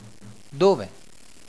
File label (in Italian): "Dove", Italian